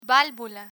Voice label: "valvula", Spanish

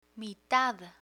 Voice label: "mitad" Spanish

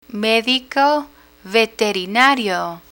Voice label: "medico veterinario", Spanish; learn spanish

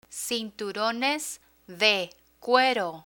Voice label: "cinturones de cuero", Spanish

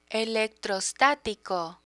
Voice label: "electrostatico", Spanish

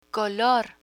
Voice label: "color", Spanish; picture dictionary; learn spanish

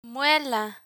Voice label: "muela", Spanish; medical medicine vocabulary; learn spanish

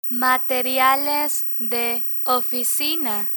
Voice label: "materiales de oficina", Spanish; office supplies; learn spanish

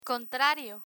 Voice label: "contrario", Spanish

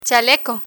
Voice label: "chaleco", Spanish; spanish vocabulary; learn spanish

